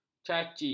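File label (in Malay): "caci", Malay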